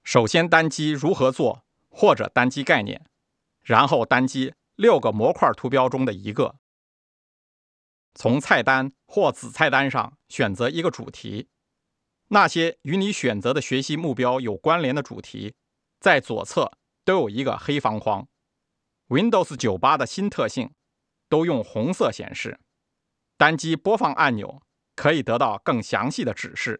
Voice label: mandarin